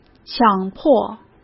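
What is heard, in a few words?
"强迫", Mandarin Chinese; chinese-flashcards; hsk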